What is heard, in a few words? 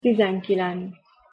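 "tizenkilenc", Hungarian pronunciation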